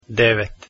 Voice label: 9 Croatian

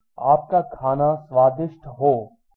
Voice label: bon appetit, hindi

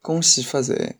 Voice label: happy new year shanghainese; 恭喜发财; Chinese (Shanghainese)

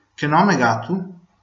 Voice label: name, Venetian; Che nòme gatu?

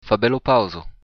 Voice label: long time no see, occitan

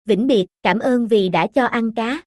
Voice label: so long, Vietnamese

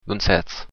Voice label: where from, occitan

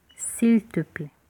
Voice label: please, Lingala

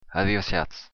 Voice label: goodbye, occitan

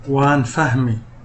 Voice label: understand, somali

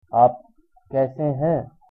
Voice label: how are you, hindi

